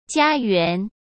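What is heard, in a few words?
"家园", Mandarin Chinese; chinese flashcards; hsk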